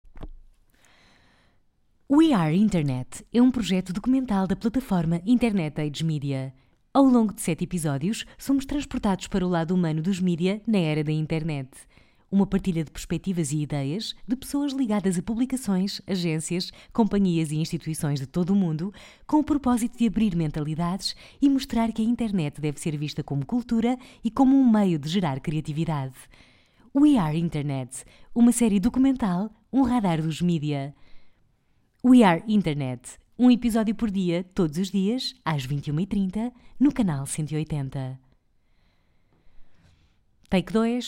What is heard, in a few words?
female portuguese tv promo